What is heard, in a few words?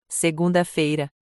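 "Segunda-feira", Portuguese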